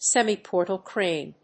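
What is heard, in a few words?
"semi-portal crane", English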